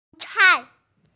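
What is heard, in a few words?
"shu1cai4" Mandarin Chinese, female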